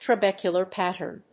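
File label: "TRABECULAR PATTERN", English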